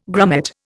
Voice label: "grummet", Indian